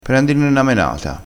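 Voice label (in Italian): "menata", dialettale crespinese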